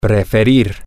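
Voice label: Spanish, to prefer, male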